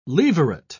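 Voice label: "levirate", British English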